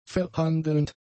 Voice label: "verhandelnd", German; adjectives